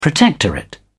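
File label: "protectorate", British English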